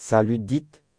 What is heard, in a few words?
hello, Breton; Salud dit